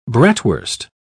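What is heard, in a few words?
"bratwurst", British English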